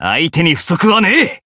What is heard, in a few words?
Voice encount fear jp